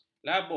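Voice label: "labuh", Malay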